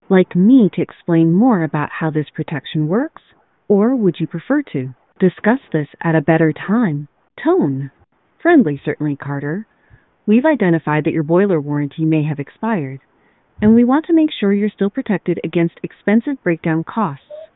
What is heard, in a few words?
aggressive